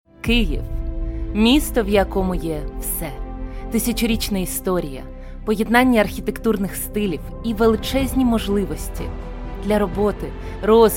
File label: Ukrainian, Female, 20s – 30s